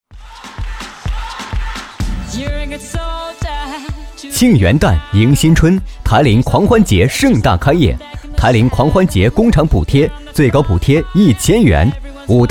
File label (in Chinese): 男 广告 促销